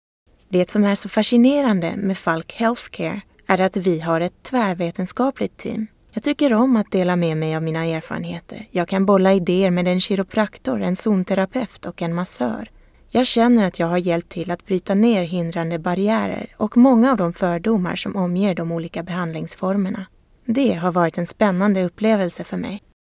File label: Swedish; foreign languages